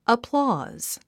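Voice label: "applause", English; əplɔ́ːz アプローズ; 意味 拍手 称賛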